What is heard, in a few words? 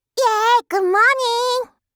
"yeah good morning", voice; aisatsu